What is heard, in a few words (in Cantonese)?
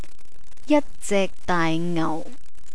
jat zek daai ngau, Cantonese, money